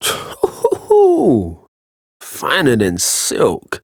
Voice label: Ambient Barks, en; Warframe; Mall Romance; Quincy